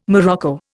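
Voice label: "morocco", Indian English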